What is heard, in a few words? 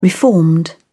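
"reformed", English, uk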